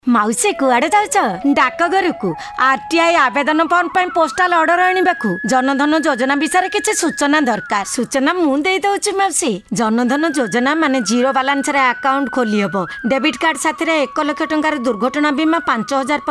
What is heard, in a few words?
Oriya